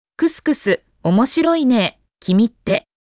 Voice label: anime, Japanese Anime and Internet Slang Expressions of ‘Haha! That’s Funny!